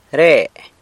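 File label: "re", San Lucas Quiaviní Zapotec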